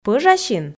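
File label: "пӧжасин", Komi, female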